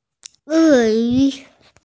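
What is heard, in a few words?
"e4 yu2", Mandarin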